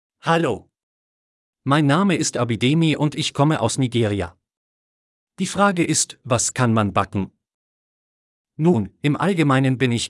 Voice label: Audiobook Voice